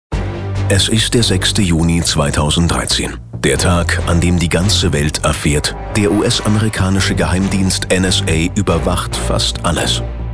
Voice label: Doku; Snowden Story

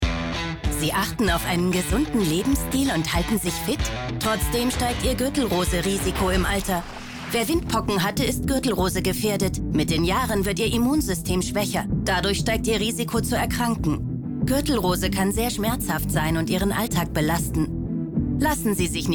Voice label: Werbung TV OFF; Z-Dac

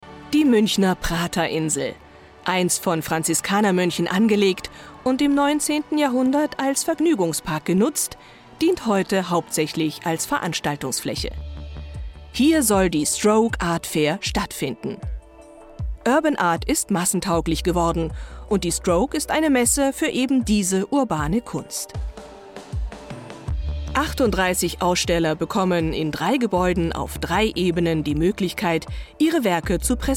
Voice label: Kommentar; Spiegel TV

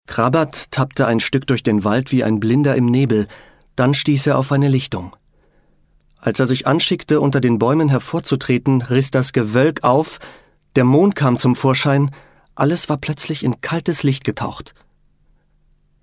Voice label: Hörbuch kurz; Krabat

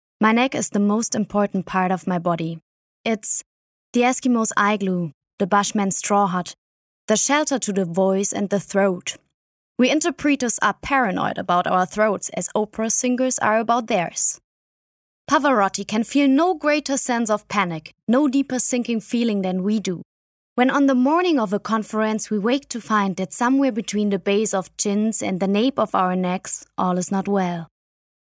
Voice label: englisch

